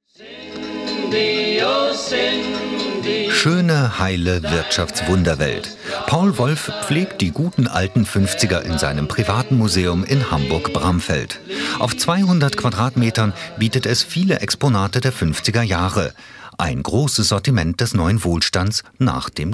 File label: Narration